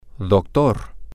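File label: Spanish, male; doctor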